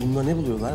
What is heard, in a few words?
cont rise